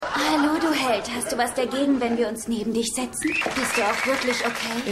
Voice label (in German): Frau; Stimmproben